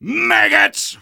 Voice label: Soldier taunts; "Maggots!"